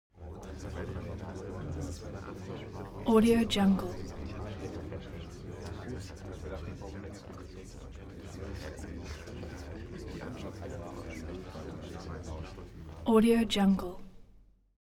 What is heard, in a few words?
human crowd male crowd mumbling; smartsoundfx